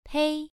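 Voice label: "pei", Mandarin Chinese syllable, first tone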